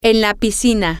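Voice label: in the swimming pool, Spanish, female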